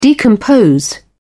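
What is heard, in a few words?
"decompose", British English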